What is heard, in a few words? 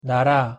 "나라", Korean